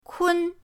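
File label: "kun", Mandarin pinyin, first tone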